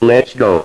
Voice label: Lets go Robot